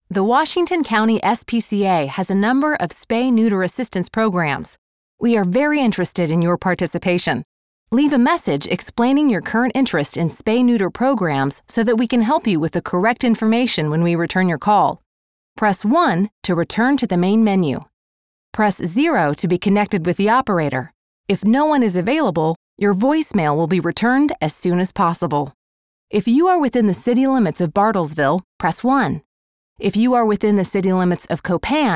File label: IVR; SPCA